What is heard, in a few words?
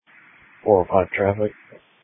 Sheriff Dispatch